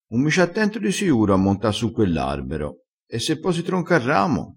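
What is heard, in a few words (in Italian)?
"attenta", vocabolario dialettale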